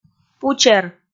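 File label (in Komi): "пучер", Komi, female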